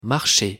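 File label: "marcher", French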